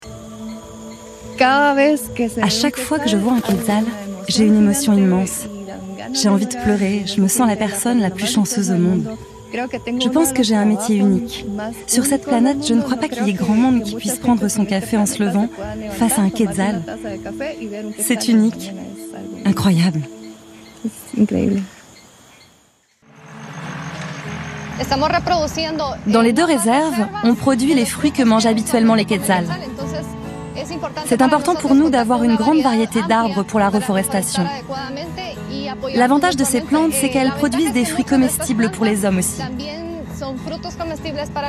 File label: Voice over; Vu sur Terre